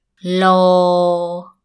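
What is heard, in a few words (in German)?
schöne männliche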